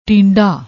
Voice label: "tinda", Punjabi

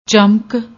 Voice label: "chamak", Punjabi; ਚਮਕ

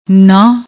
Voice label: "naah", Punjabi; ਨਾਂਹ

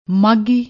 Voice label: "maghhi", Punjabi; ਮਾਘੀ

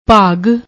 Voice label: "bhaag", Punjabi

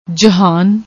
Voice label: "jahaan", Punjabi

